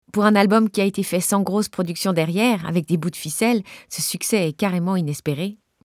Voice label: Casting FR